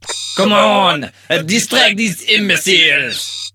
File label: low; awesomenauts